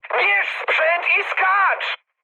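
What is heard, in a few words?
bailout, mood high, Polish speech; War Thunder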